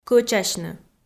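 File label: "кӧчасьны" Komi, female; kpv audio dict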